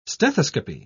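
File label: "stethoscopy", British English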